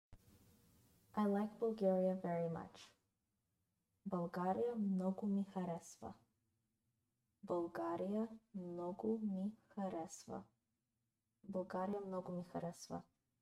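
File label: "I like Bulgaria very much", Bulgarian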